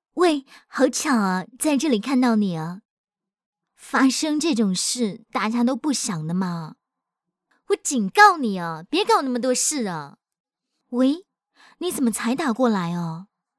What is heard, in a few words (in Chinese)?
女 模仿 TVB腔